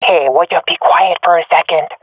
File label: request quiet, swat fem; Source Model Packs; Counter Strike Model Pack; CS2